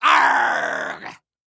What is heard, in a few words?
Voice Clip Male; SFX Producer